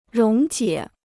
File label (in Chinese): "溶解", Chinese